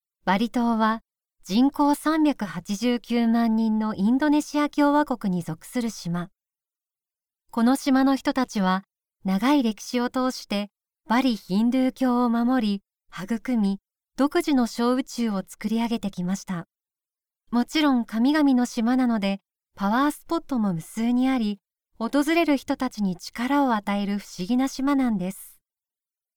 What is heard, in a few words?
female